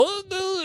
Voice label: voice, asian voices